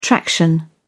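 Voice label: "traction", British English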